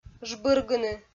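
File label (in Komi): "жбыргыны", Komi, female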